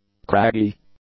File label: "craggy", English; adjectives